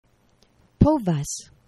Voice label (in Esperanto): "povas", esperanto; geneva